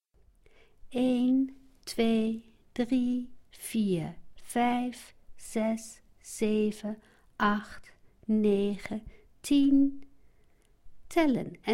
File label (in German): Niederlaendisch